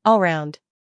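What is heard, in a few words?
"scandal", American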